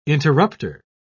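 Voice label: "interrupter", British English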